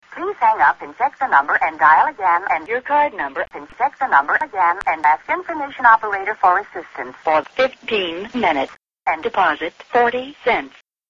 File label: intense instructions